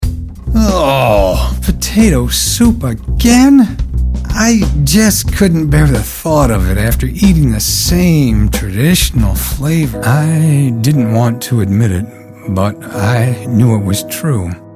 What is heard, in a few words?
Commercial